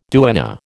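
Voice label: "duenna", American English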